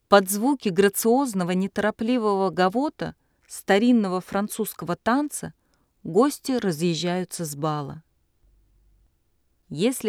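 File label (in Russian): kommentarij, commentary; gavot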